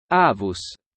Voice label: Grandfather latin